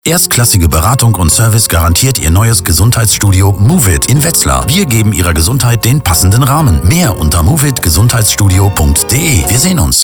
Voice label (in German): Radiospot